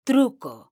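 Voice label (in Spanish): "TRUCO", Spanish, female; mekanta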